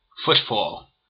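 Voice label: "footfall" US English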